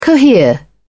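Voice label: "cohere", British English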